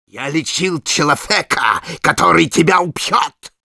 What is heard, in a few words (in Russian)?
Medic taunts, ru